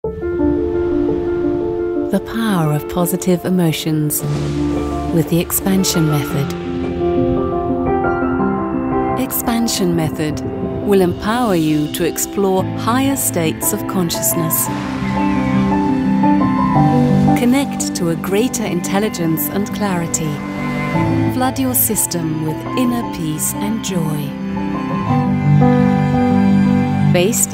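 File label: English, advert; Expansion Method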